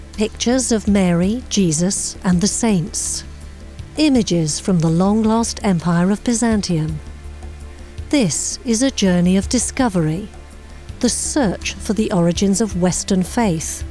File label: documentary